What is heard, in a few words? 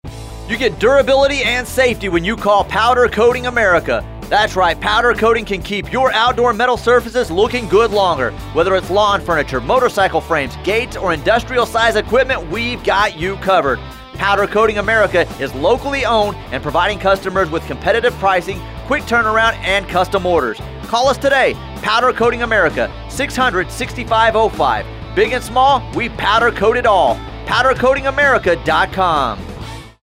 radio